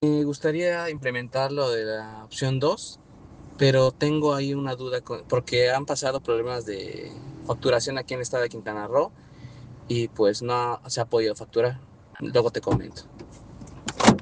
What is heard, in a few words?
whatsapp ptt